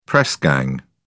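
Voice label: "press gang", British English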